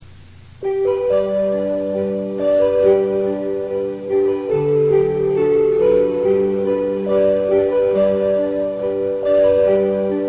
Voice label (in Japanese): 到着前放送; 白子到着前放送